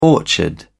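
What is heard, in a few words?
"orchard", English, British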